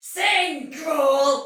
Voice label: See Ally Hit Soldier Female, Battle; Dialog; Sinecure